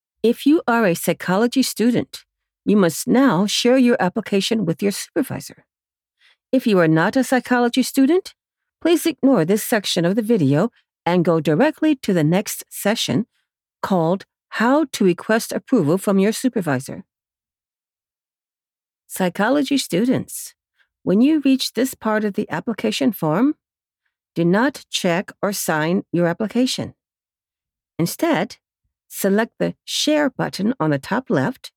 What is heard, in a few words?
Explainer eLearning How To, american